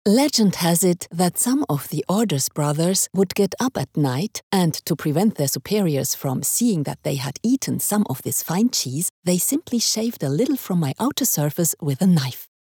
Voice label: female German English with German accent commentary; Devine Voices